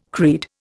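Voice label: "creed", Indian English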